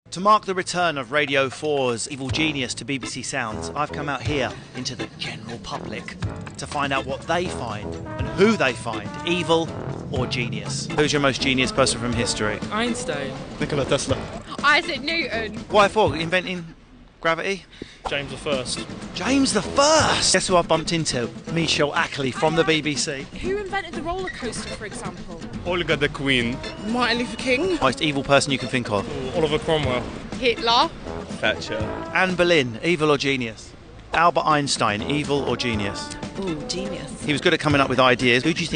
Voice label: Voiceover; Russell Kane